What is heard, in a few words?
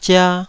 "zia2", Taiwanese syllable